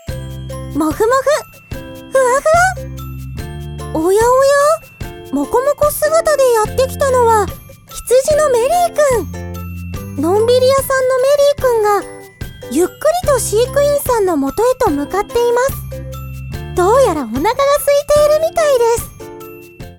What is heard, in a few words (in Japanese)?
キャラクターナレーション 羊の女の子